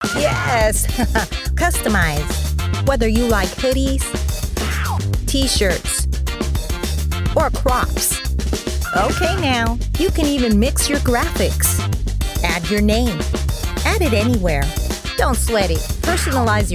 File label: Choopy Clothing, Choopy Clothing Online Promo, Conversational, Friendly, relatable Female Voice; Urban